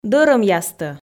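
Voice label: "дӧрӧмъястӧ", Komi, female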